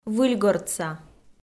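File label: "выльгортса", Komi, female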